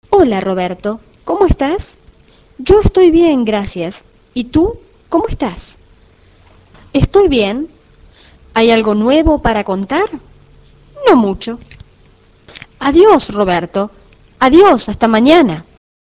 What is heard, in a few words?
spanish